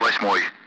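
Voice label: Speech, Russian, 8th; Allied Flight; DCS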